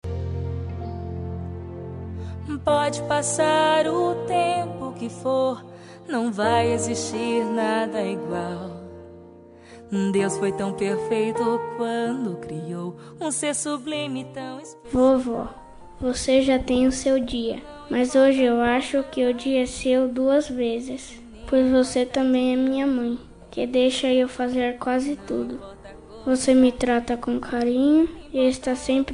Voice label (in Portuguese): dm voz infantil mas avo, voz masculina infantil; dia das maes para avo voz masculina infantil